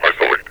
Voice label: isolate, metropolice; Sourceforts v1.9.2; hl2; npc